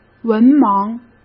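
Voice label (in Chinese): "文盲", Mandarin Chinese; hsk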